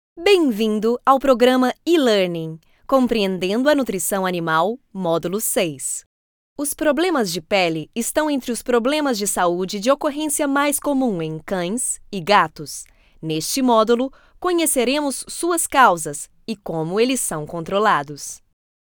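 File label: brazilian f learning, portuguese bra voice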